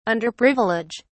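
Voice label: "underprivilege", English, British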